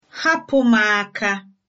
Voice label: leave me alone, igbo

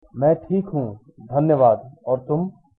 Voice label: fine, hindi

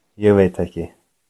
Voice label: I don't know, Icelandic

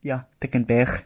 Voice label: yes a little, gwentian

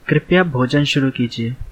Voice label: bon appetit, hindi

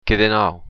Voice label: how are you, occitan

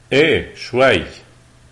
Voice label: yes a little lebanese; Eh, chway ...

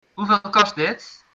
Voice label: how much, dutch

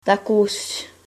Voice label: goodbye, greenlandic

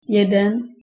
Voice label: one, czech

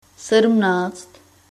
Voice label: "seventeen", czech

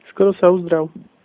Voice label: get well soon, slovak; Skoro sa uzdrav!

